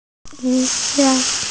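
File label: "qie2zi5", Mandarin Chinese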